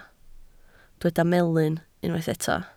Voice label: "melyn" Welsh

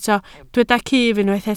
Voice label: "cyff", Welsh

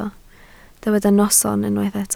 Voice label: "noson" Welsh

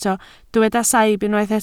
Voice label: "saib" Welsh

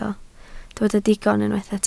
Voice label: "digon", Welsh

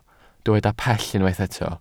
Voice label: "pell", Welsh

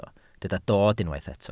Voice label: "dod", Welsh

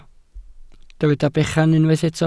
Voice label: "bechan", Welsh

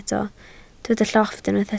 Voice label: "llofft", Welsh

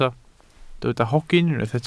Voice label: "hogyn" Welsh

